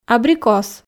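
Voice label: "абрикос", Komi, female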